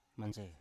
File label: "manse", Biak